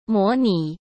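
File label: "模拟", Mandarin Chinese; hsk; chinese-flashcards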